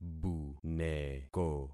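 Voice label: "buneko", concatenated syllables; Affixing experiment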